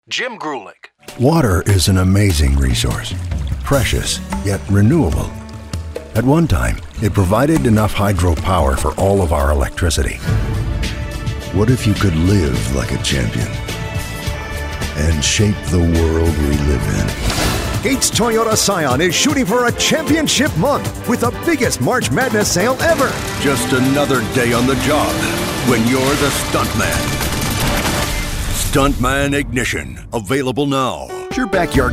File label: male; Spotland Productions